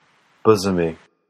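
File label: "bosomy", US English; pronunciations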